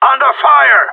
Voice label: radio taking fire; player vo gsg9